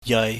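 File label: "yeui", Cantonese, low level tone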